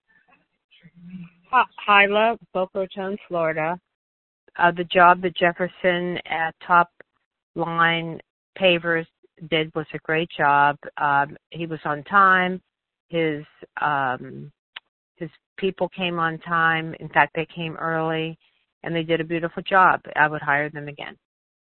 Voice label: testimonial